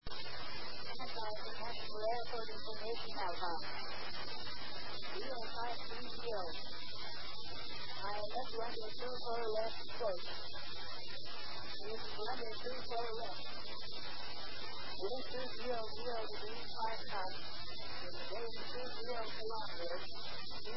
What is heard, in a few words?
KIX ATIS A; osaka